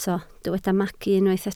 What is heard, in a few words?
"magu", Welsh